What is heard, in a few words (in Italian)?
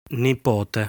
"Nipote", Italian